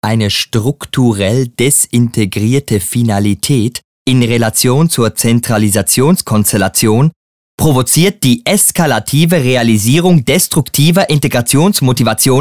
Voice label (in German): Dok witzig dt